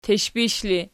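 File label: "tishpish" ergative, Archi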